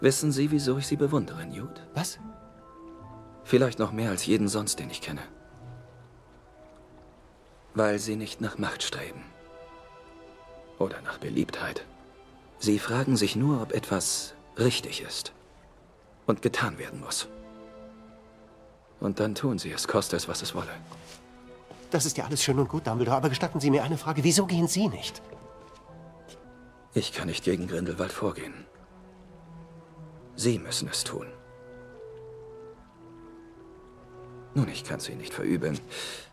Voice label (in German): Synchron, maenner; Phantastische Tierwesen; Grindelwalds Verbrechen